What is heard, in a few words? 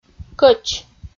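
"кӧч" Komi, female; kpv audio dict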